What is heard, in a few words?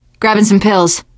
take pills, survivor voice teen girl; source engine; left 4 dead 2